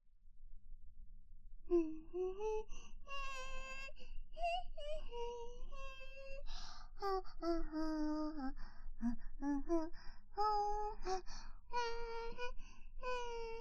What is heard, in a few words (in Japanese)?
BGM効果音なし